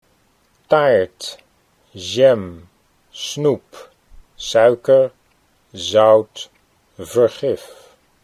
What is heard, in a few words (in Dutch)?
"taart jam", Dutch